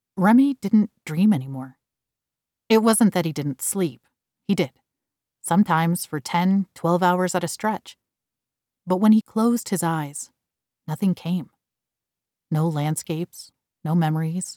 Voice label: Drama Natural voice